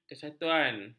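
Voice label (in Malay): "kesatuan", Malay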